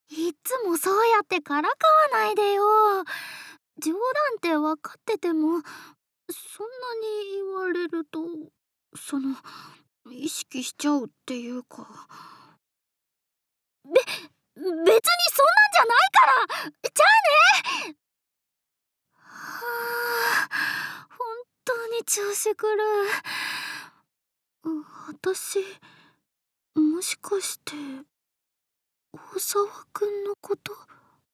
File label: School girl